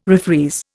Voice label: "refreeze", Indian

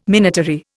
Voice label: "minatory", Indian

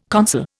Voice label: "consul", Indian

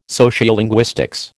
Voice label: "sociolinguistics", American

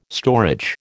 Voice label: "storage", American

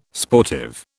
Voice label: "sportive", British

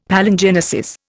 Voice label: "Palingenesis", Indian; Dictionary

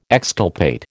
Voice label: "exculpate", American

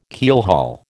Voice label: "keelhaul", American

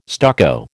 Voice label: "stucco", American

